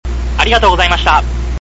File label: sample normal, voice